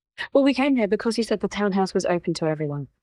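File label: Voice Acting es, female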